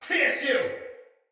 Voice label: Shoot Arrow Soldier Male; Battle; Barks; Dialog; Sinecure Soldier Male A

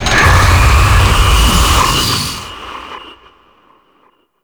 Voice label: Sentient Vessel Pain; Warframe; Tau

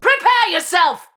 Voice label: Player Spotted Ranged, Battle Barks; Tau; Tau Dax

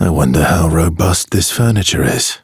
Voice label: Ambient Barks, en; Warframe; Mall Romance; Arthur

